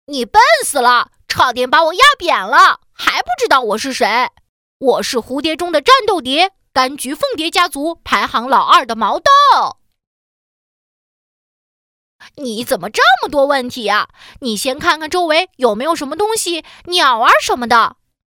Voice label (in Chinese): 女 童声 男童